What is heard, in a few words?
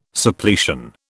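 "Suppletion", British English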